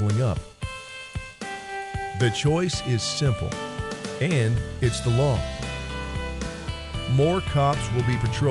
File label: Wyoming radio news